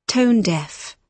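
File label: "tone deaf", British English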